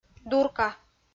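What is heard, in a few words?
"дурка", Komi, female; kpv audio dict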